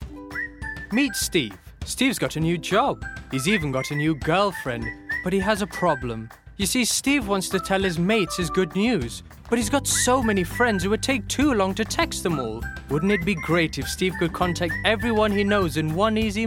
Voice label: Explainer Video

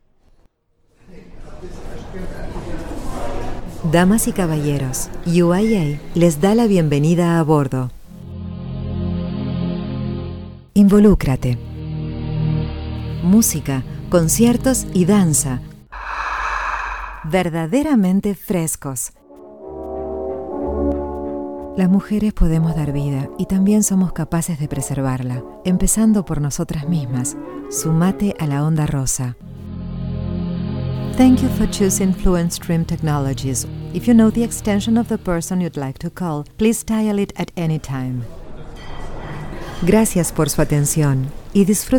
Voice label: Spanish Latam Voice

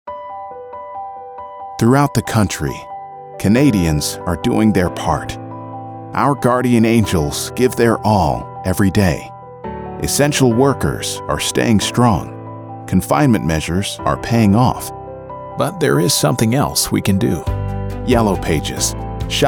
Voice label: Commercial

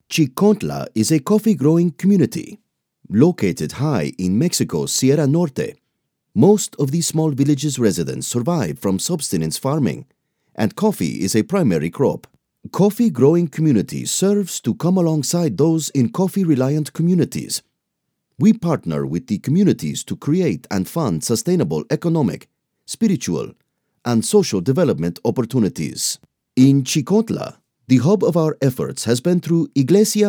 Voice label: Spanish Accent Narration

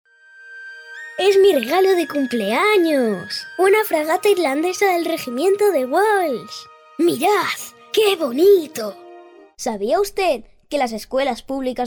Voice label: Children Voice

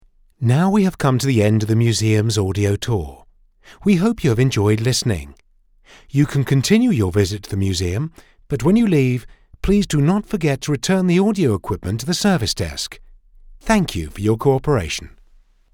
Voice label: British Tourist Guide

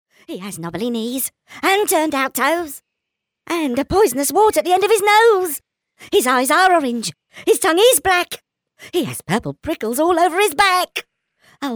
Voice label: Kids Characters

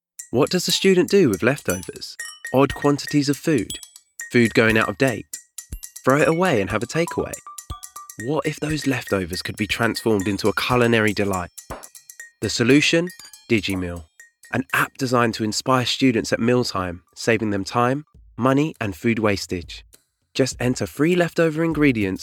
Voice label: Corporate

